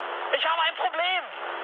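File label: German aircraft damaged mood med, speech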